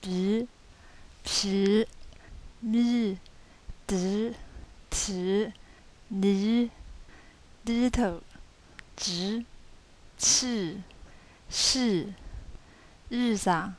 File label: vowel zh, yancheng